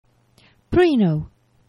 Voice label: "prujno", esperanto; geneva